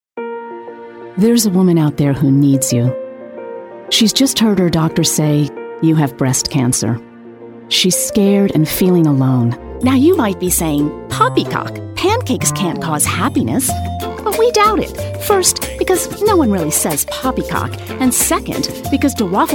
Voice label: Commercial